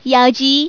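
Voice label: "1 tiao", Chinese, female; 女; 欢乐麻将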